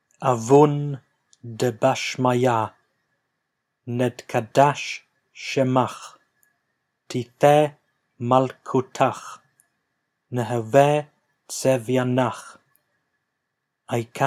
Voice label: Male Slower, Lords Prayer Narrated, Aramaic